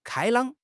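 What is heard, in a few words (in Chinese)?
"开朗", Chinese; HSK6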